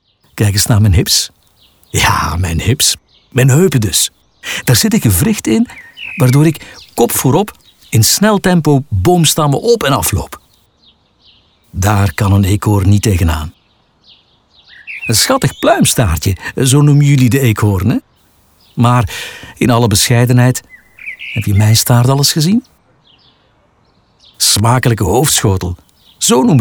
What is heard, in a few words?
conversational